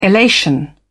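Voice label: "elation", British English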